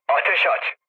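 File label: Turkish attack position, mood low, speech; War Thunder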